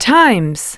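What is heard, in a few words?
"times", English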